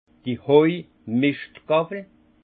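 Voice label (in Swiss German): "haigawwel", Alsace Haut Rhin; fourche; vin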